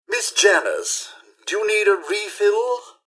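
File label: hello, robot; rivetcity; mrhandy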